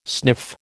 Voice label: "sniff", American

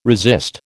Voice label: "resist", American

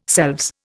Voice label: "selves", Indian

